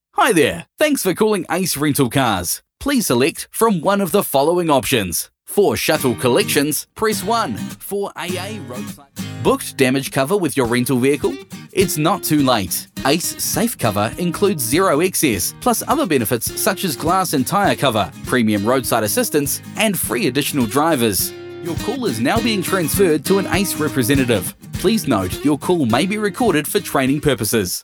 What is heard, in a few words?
NZ Male Voice Talent Phone